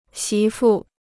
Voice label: "媳妇", Chinese

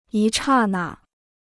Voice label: "一刹那", Chinese

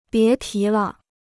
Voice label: "别提了", Chinese

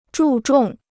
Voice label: "注重", Chinese

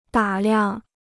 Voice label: "打量", Chinese

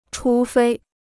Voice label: "除非", Chinese

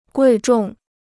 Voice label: "贵重", Chinese

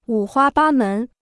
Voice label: "五花八门", Chinese

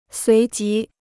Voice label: "随即", Chinese

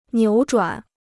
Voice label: "扭转", Chinese

